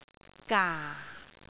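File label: "gaa4", Cantonese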